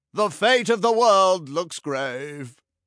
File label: narrator game over; Danger Mouse - Ultimate